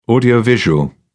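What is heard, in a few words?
"audio visual", British English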